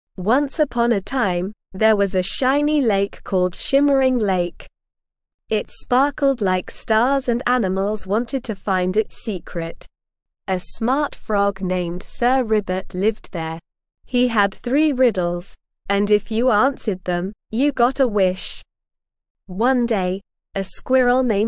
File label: ESL Short Stories for Kids Beginner SLOW Reading; The Tale of the Shimmering Lake